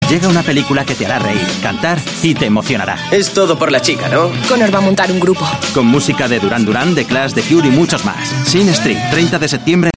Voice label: Euro Spanish Male Trailer